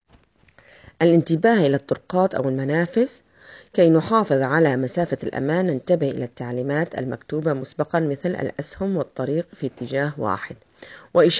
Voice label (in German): Arabisch